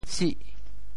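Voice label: "sih8", Teochew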